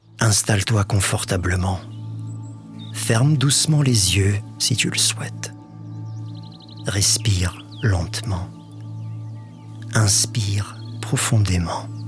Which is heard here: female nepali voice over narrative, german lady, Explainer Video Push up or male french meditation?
male french meditation